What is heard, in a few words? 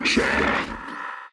Automaton Alert Line; NPC; Heist Robot Spotted